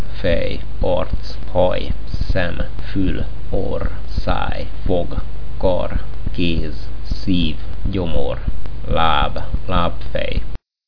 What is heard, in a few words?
hungarian